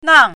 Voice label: "nang4", Chinese pinyin